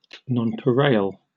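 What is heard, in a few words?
"nonpareil", British English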